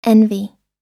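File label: "envy", English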